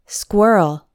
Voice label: "squirrel", English; hard words

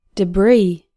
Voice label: "debris", English

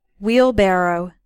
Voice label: "wheelbarrow", English

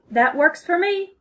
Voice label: phone formal

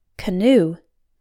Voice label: "canoe", English

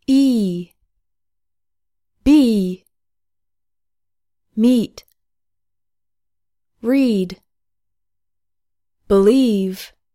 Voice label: english vowels

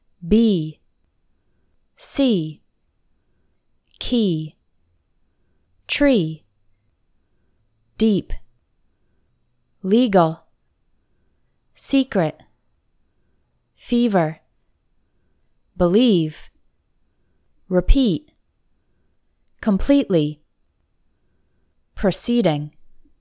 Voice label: words with long E sound; long and short vowels

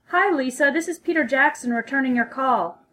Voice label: phone formal

